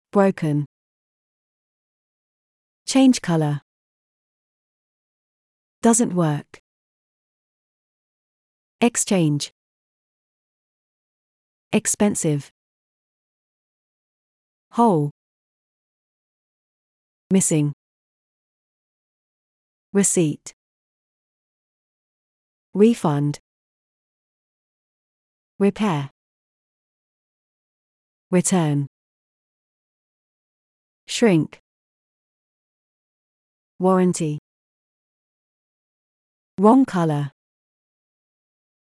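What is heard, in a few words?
British; shopping problems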